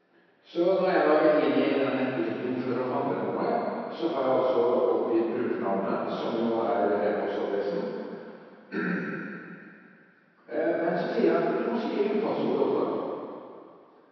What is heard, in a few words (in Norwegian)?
prater i kirke